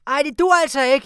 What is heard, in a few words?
"no", Voices; AppleHillsProduction; Quarry; Trafalgar